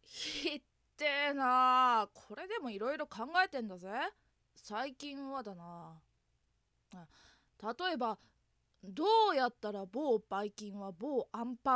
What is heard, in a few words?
genki boy, voice